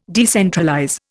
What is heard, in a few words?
"decentralize", Indian